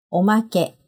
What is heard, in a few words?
"omake", Japanese; bonus extra freebie